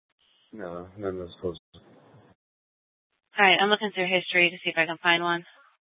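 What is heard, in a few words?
Police Department Dispatch, scanner; Mesa PD; 1 Fiesta Dispatch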